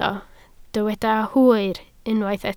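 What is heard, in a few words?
"hwyr" Welsh, spoken word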